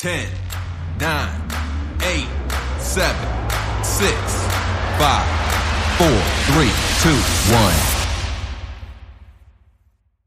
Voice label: Vocals Countdown Rise